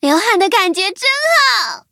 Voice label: upgrade, zh-hant